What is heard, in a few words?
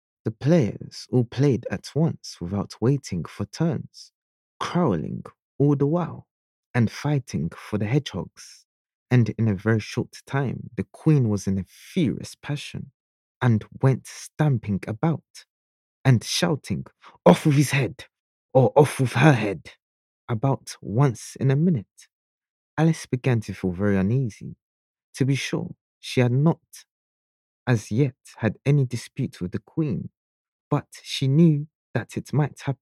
articulate, professional, warm Male Voice Over